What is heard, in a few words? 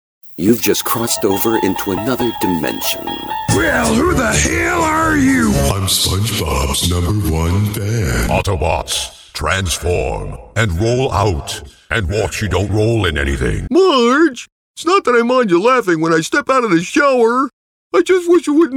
VOICE IMPRESSIONS, CHARACTER VOICE IMPRESSIONS; CHARACTER VOICES CARTOON VOICES